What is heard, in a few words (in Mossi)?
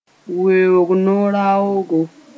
"weoog-no-raoogo", moore